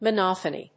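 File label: "monophony", English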